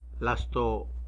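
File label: "heart", Tlacolula Zapotec; lasto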